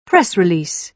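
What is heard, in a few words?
"press release", British English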